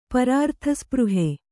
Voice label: "ಪರಾರ್ಥಸ್ಪೃಹೆ", Kannada

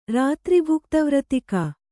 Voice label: "ರಾತ್ರಿಭುಕ್ತವ್ರತಿಕ", Kannada

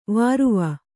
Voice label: "ವರವು", Kannada

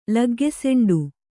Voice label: "ಲಗ್ಗೆಸೆಂಡು", Kannada

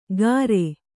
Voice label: "ಗಾರೆ", Kannada

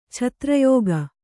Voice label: "ಛತ್ರಯೋಗ", Kannada

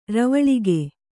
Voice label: "ರವಳಿಗೆ", Kannada

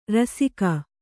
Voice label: "ರಾಸಿಗೈ", Kannada

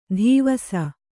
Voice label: "ಧೀವಸ", Kannada

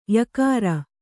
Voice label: "ಯಕಾರ", kannada

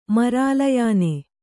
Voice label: "ಮರಾಲಯಾನೆ", Kannada; marālayāne; noun

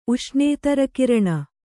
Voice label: "ಉಷ್ಣೇತರಕಿರಣ", Kannada

